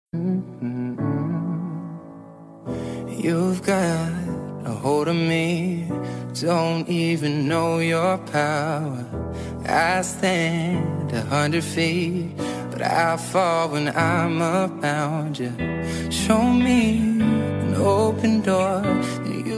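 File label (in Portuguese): ANIVERSARIO 15 ANOS AFILHADA male, telemensagem aniversario 15 anos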